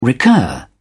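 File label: "recur", British English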